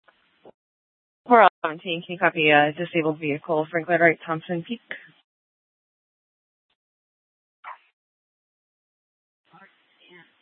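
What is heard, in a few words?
Scottsdale PD North Dispatch; Nth Dispatch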